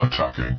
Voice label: attacking, powerful commander robot voice; dry; Leevaudio

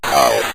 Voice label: "south", synth voice; Synth Voice 2; Kenney's Sound Pack

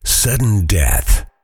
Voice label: sudden death, Voiceover Pack Fighter; Kenney's Sound Pack

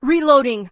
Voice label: "reloading", female; war; Kenney's Sound Pack; Voiceover Pack

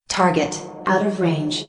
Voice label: Narrator Voice target Out Of Range, Female AI; Narrator Voice Pack 1

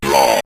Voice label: "draw", synth voice; Synth Voice 2; Kenney's Sound Pack

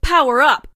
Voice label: "power up", female; Kenney's Sound Pack; Voiceover Pack

